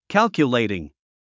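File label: "calculating", English word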